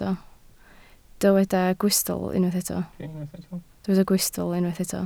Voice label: "gwystl", Welsh